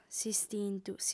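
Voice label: "s'istintu", female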